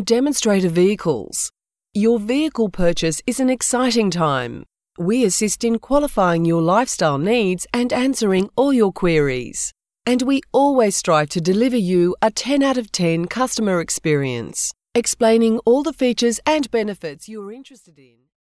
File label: female australian voice over upbeat